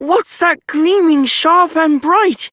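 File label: Child Rhymes Fear Child Male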